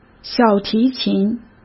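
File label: "小提琴", Mandarin Chinese; hsk; chinese flashcards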